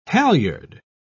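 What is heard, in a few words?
"halliard", British English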